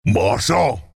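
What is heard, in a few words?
move, chinese; Husk; dota2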